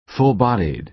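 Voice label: "full bodied", American English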